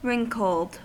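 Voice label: "wrinkled", US English; pronunciations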